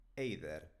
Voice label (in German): "eider", baskische